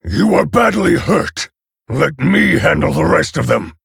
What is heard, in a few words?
Male Orc Ogre